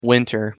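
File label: pronunciation "winter", English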